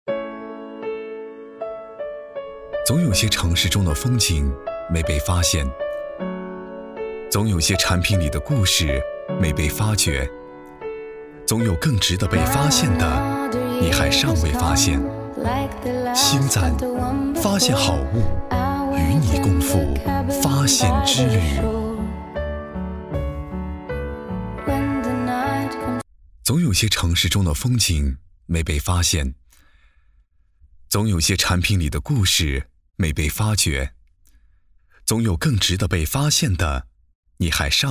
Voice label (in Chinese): 走心自然; 星赞走心自然